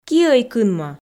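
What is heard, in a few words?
"киӧй кынмӧ", Komi, female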